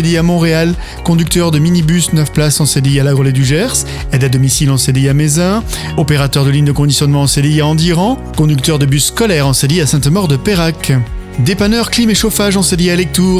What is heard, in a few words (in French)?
PUB FRANCE TRAVAIL CDI